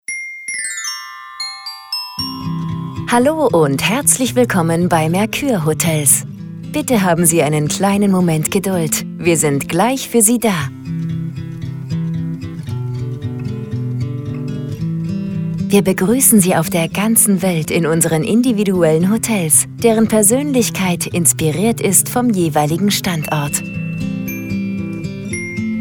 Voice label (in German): telefonansagen; mercure hotels